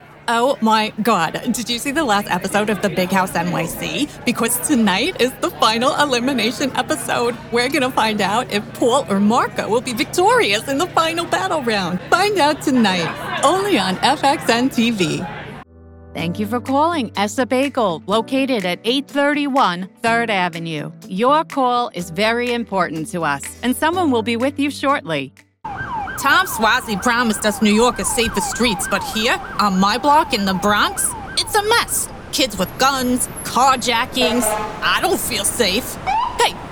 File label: NYC Accents